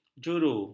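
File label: "juru", Malay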